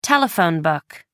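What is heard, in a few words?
"telephone book", British English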